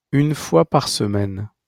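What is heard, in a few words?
"une fois par semaine", French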